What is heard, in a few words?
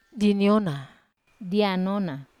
"aniona", Wamesa